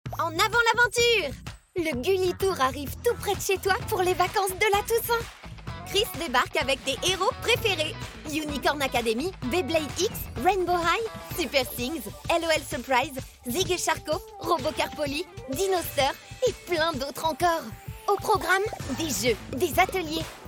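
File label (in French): Voix CARTOON, Comédienne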